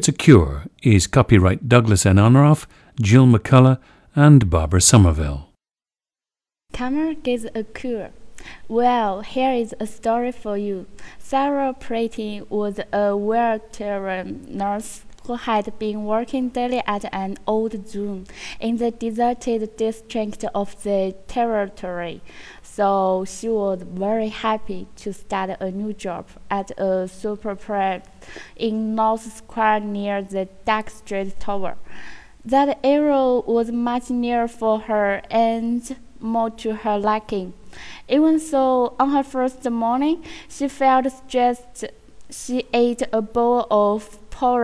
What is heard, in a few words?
hunan